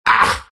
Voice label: tusk pain